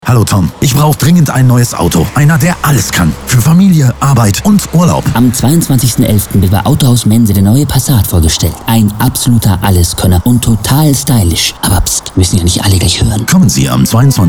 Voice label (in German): werbespot; autohaus mense